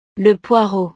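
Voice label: "le poireau", French; verduras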